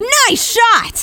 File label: scout nice shot, fem scout